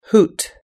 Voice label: "hoot", us-english